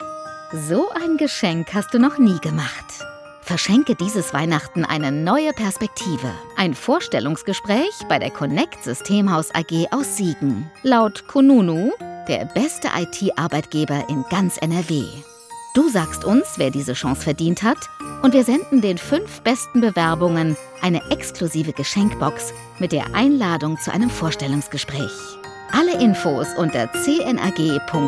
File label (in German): Werbespot